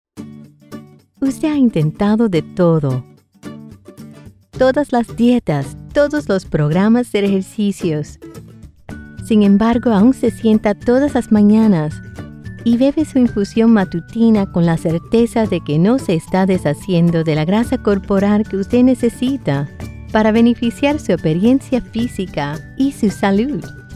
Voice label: Spanish Explainer